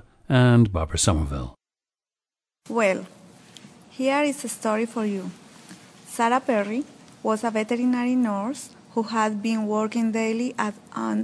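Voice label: colombia, International Dialects of English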